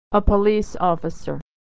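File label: "officer", American English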